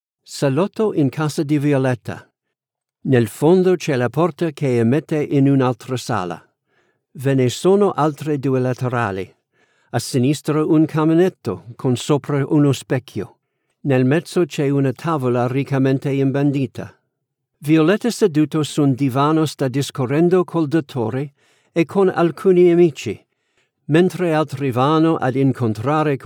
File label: Italian, accents languages